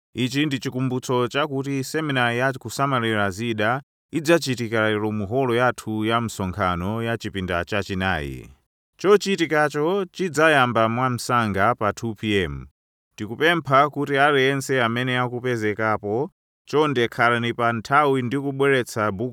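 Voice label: Chewa, male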